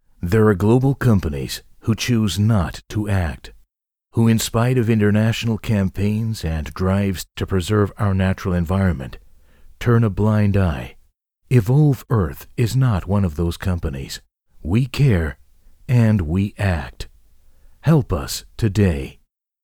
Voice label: USA warm

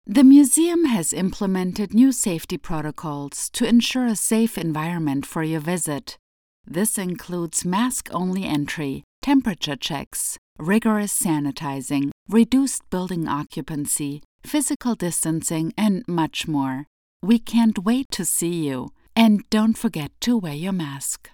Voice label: covid19 reassuring

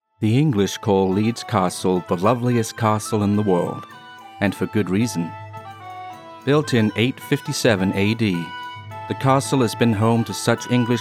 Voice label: Documentary; Leeds Castle

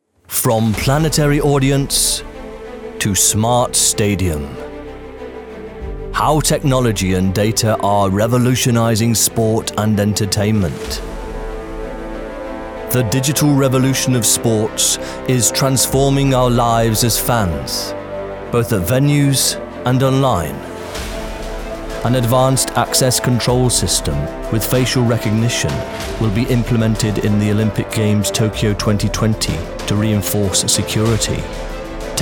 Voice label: B2B Advertising Example

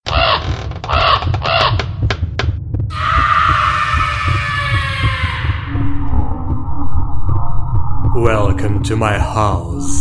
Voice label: Creepy Scary Voice Sounds

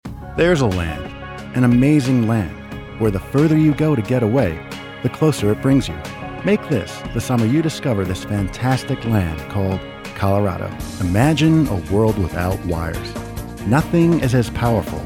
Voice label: Voice Over

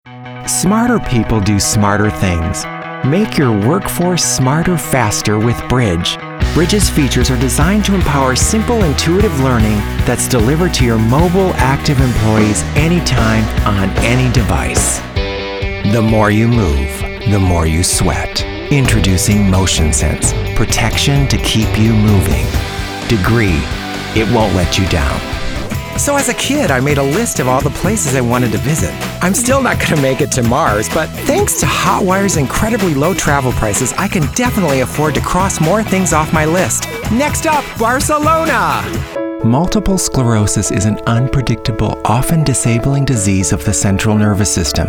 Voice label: Voiceover

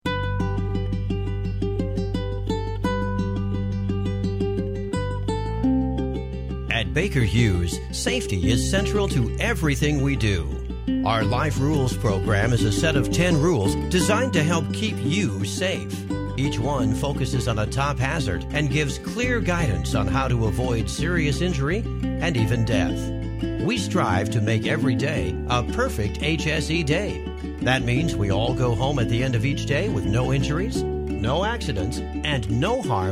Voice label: explainer video

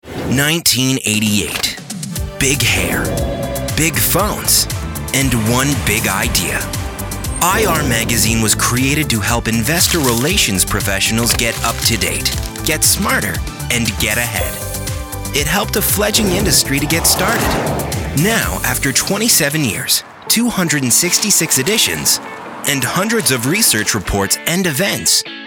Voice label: Explainer Video VO; IR Magazine

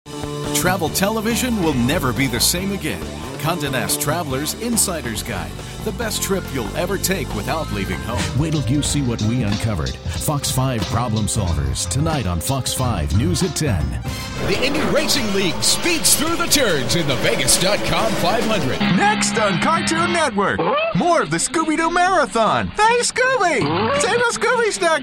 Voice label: PROMOS

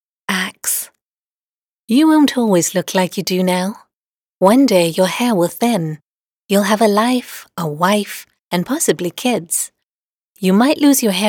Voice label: radio

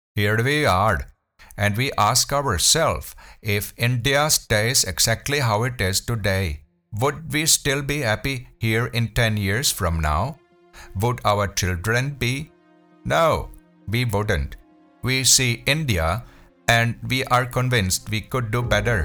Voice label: HINDI AMER MUSIC

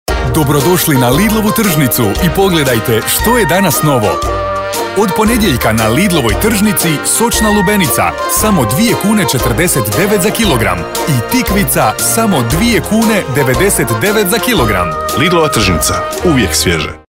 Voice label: TV Commercial

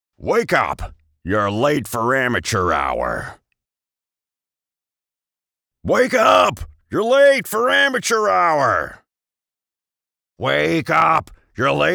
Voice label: comedic podcast character male voice over; the champ

